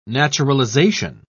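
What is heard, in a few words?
"naturalisation", British English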